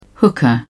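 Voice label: "hookah", British English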